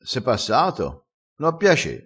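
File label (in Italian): "ave a piace", vocabolario dialettale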